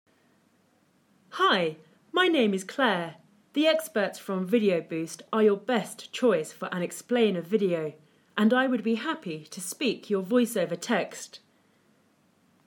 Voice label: British English, voiceover